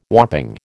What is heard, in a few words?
"whopping", American